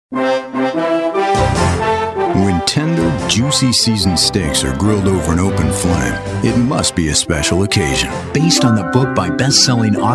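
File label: commercial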